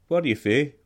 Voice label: where from, scots